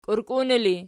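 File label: "kiurkiuni" ergative, Archi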